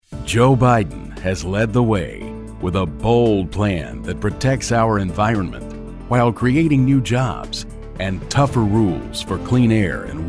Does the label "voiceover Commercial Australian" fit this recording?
No